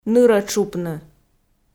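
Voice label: "нырӧ тшупны", Komi, female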